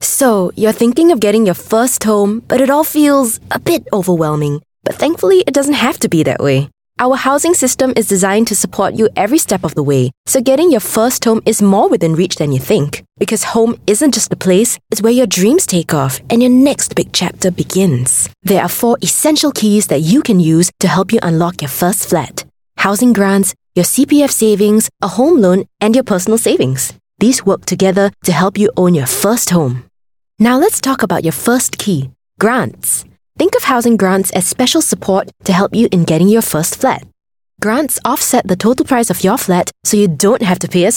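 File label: Youth Explainer Videos, Asian English; MND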